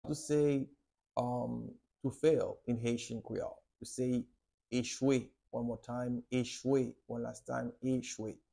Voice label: How to say Fail in Haitian Creole Echwe pronunciation by a native Haitian Teacher